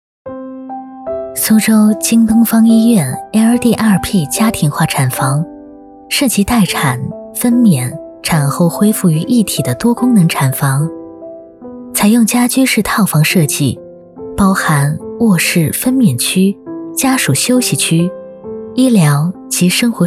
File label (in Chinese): 宣传片温暖; 京东方医院